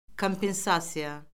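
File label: "kompensasiya", Azerbaijani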